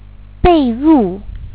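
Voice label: "被入", Chinese; bei4ru4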